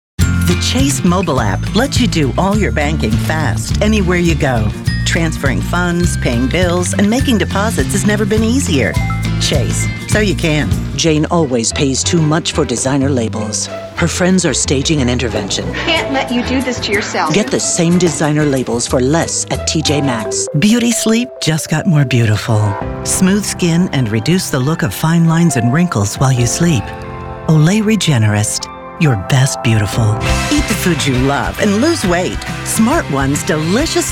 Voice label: women commercial; Rich, Warm, Smooth, Authoritative, Upbeat, Natural.

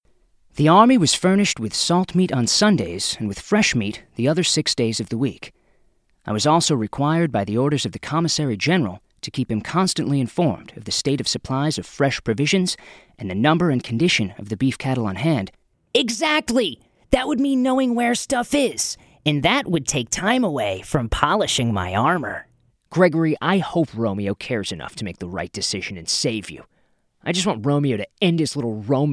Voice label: men animation; The guy you turn to for hip music or to learn how to use your smartphone.

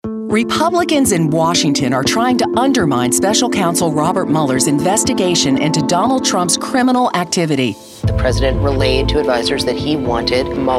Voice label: women political

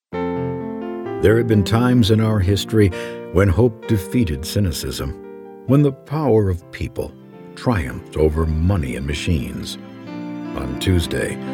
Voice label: men political; often described as 'The Voice of the Baby Boom', with a hipper edge.

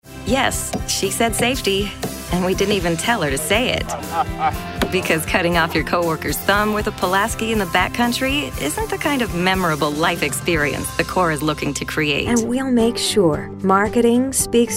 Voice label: women narration; Approachable, sultry, warm, and wise.

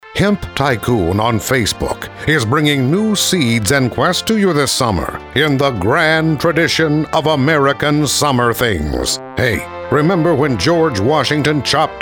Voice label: men promos; Warm to fuzzy, bigger voice.

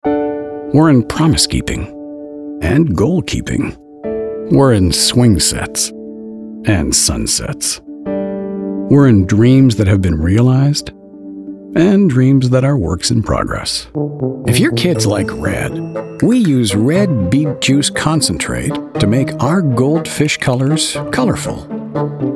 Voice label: men commercial; Credible, wide-range, speaks French fluently.

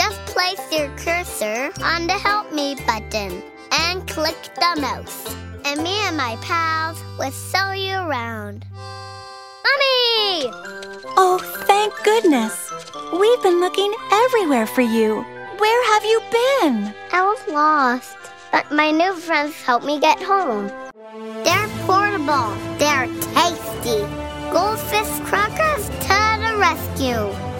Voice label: kids commercial; Adorable, unique, endearing, young 4yr old with a slight lisp.

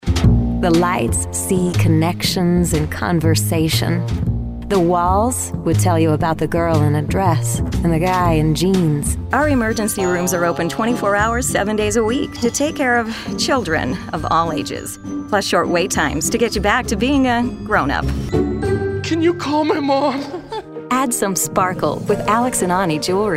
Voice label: women commercial; Approachable, sultry, warm, and wise.

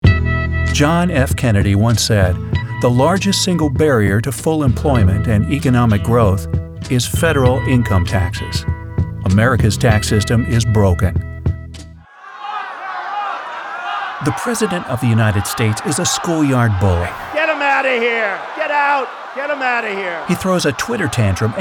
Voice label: men political

